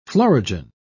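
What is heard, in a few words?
"florigen", British English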